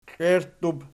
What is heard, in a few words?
"klierttub", Archi